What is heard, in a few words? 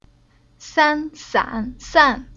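"San", Chinese syllable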